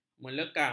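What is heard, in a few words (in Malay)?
"melekang", Malay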